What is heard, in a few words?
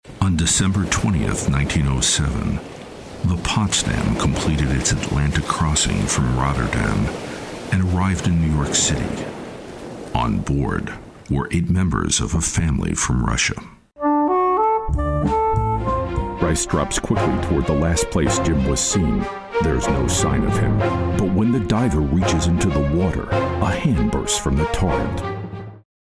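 American narration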